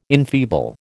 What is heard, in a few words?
"enfeeble", American